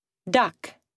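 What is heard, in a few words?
"duck", English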